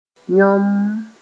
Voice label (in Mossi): "yõmm", moore